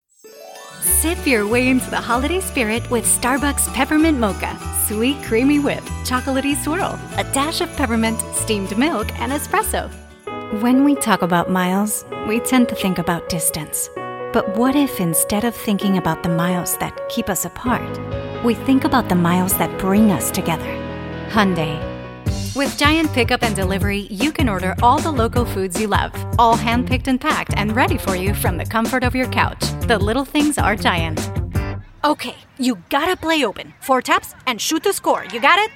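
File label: Latin American Spanish, Commercial Accented English; GoLocalise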